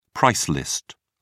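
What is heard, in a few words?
"price list", British English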